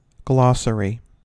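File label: "glossary", US English; pronunciations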